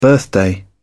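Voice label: "birthday", British English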